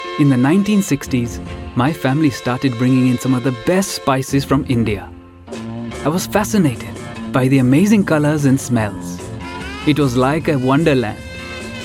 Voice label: Gujarati accent